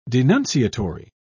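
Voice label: "denunciatory", British English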